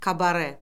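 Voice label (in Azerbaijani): "kabare", Azerbaijani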